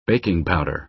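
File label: "baking powder", American English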